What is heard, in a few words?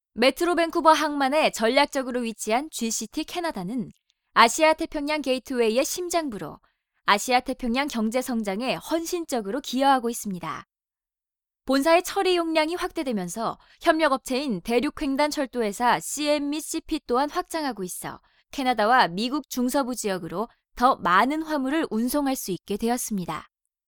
Korean female; Foreign language voice over